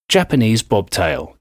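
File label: "japanese bobtail", English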